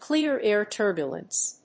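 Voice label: "clear air turbulence", English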